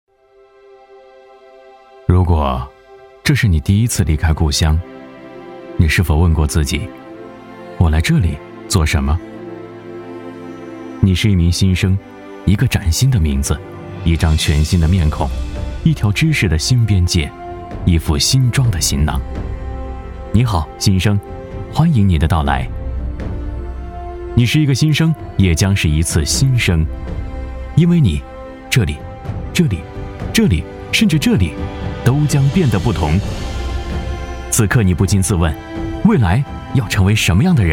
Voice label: 男 TVC 迎新

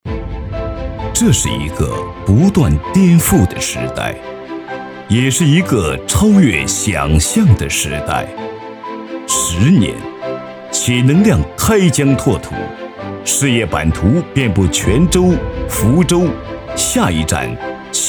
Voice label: 男 宣传片 砥砺前行; 十年传奇

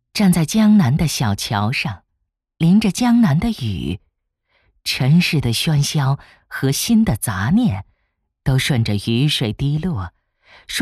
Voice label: 女 美文

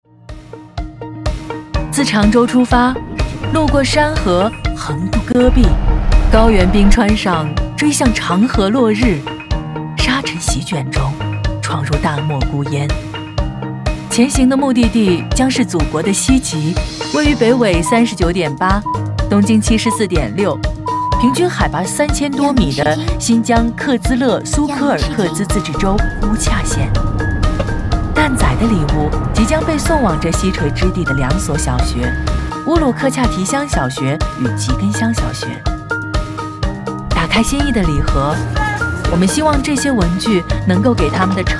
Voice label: 女 宣传片; 蛋仔派对; ×中国邮政｜情寄远山-爱心-派-送

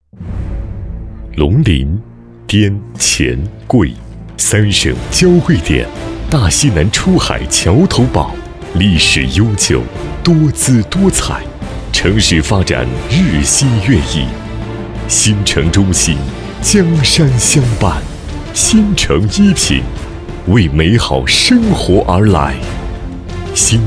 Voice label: 男 房企 大气浑厚; 新城壹品

